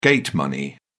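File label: "gate money", British English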